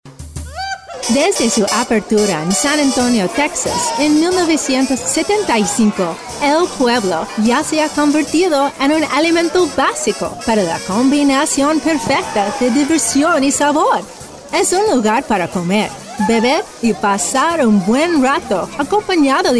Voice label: spanish demo